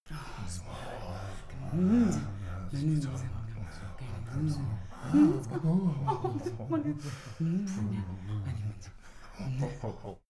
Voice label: small group whisper walla